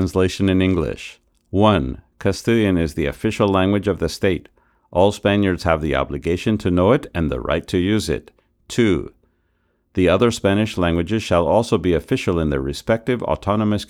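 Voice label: Apogee Hype Mic noise reduction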